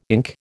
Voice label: "ink", American